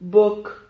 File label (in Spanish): "bock", Silabas